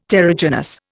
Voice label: "terrigenous", Indian